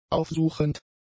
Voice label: "aufsuchend", German; adjectives

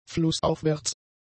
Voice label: "flussaufwärts", German; adjectives

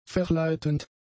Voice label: "verleitend", German; adjectives

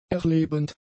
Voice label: "erlebend", German; adjectives

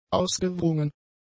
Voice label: "ausgewrungen", German; adjectives

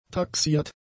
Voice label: "taxiert", German; adjectives

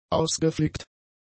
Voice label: "ausgeflickt", German; adjectives

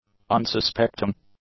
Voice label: "unsuspecting", english; adjectives

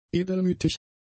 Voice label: "edelmütig", german; adjectives

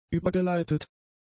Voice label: "übergeleitet", German; adjectives

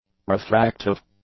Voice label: "refractive", English; adjectives

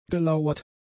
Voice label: "gelauert", German; adjectives

